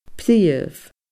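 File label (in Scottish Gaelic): "priomh", Scottish Gaelic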